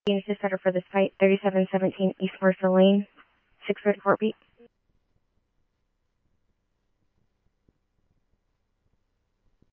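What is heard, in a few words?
scanner, Phoenix Police Department; RWC; Thompson Peak High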